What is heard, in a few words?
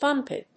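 "thumbpad", English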